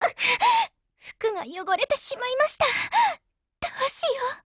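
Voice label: BREAK Japanese; STG44Mod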